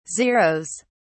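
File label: "zeroes", British English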